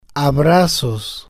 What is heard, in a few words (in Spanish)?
"abrazos", spanish